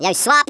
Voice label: "OI NUTTER", Africaan, Speech; Worms Armageddon